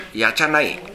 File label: "yachanae", minamiyamagata